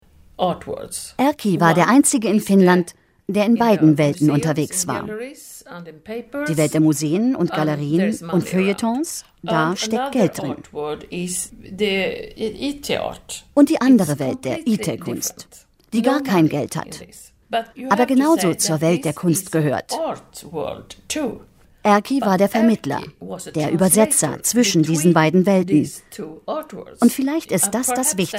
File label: voice over; dlf